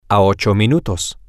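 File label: 8 Minutes Away, Spanish, male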